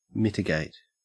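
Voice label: "mitigate", Australian English; pronunciations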